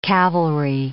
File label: "cavalry", English